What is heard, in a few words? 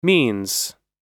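"means", American English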